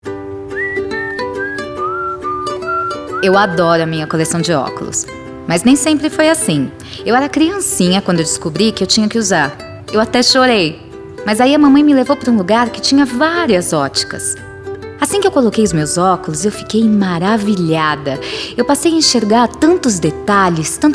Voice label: Spots; Iguatemi Bolero; Oculo

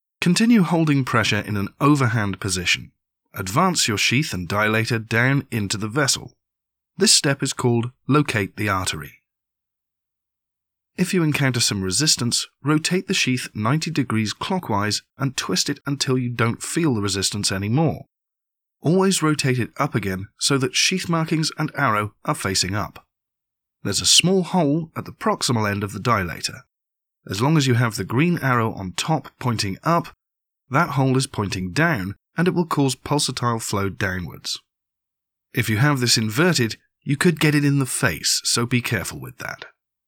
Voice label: VO for Product Demo Turorial

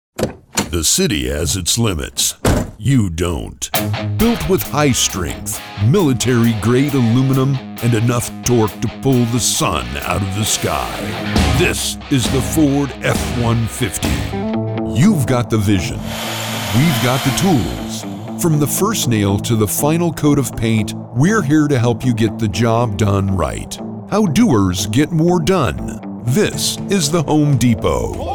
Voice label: National Commercial

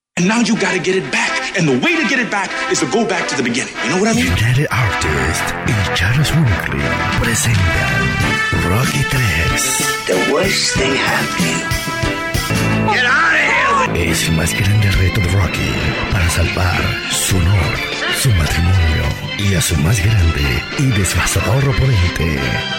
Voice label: MOVIE TRAILER SPAN

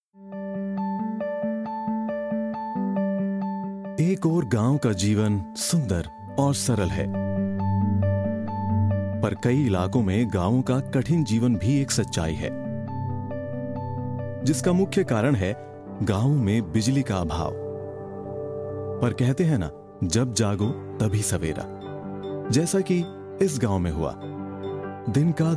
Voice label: Documentary Hindi

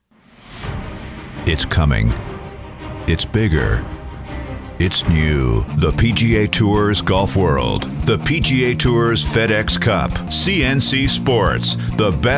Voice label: Promo Power

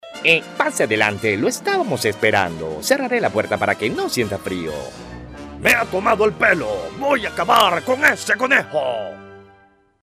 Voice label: Cartoon

